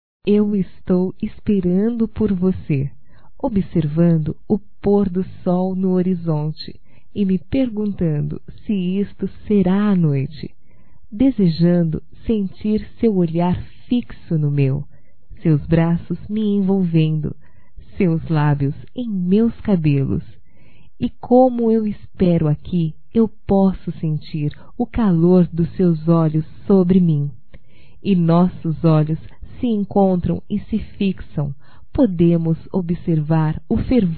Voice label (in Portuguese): AMOR ETERNO - F; Românticas